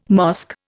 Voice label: "mosque", Indian

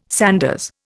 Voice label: "sanders", Indian

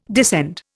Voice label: "descend", Indian

